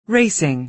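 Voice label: "racing", American English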